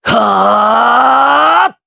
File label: Vox Casting, jp; Esker; kingsraid